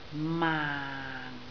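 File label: "maang4", Cantonese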